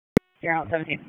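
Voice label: Public Safety Tactical, p25; wake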